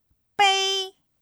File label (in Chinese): "杯", Chinese